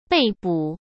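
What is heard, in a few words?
"被捕", Mandarin Chinese; chinese-flashcards; hsk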